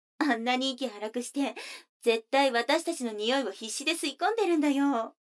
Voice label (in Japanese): 女の子 台詞, ASMR